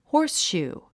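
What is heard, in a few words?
"horseshoe", English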